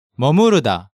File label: "Meomuruda", Korean